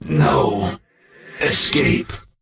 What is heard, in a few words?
Death Knight Male Low Pissed